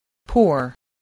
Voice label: "poor", English